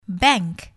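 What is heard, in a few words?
"bank", English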